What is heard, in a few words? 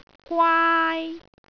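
"gwaai1", Cantonese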